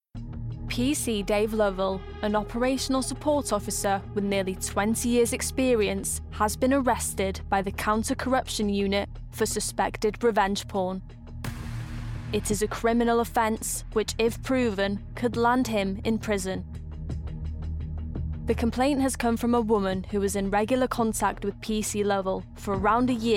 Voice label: documentary; Teens-30s; Female; Welsh